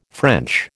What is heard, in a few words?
"French", American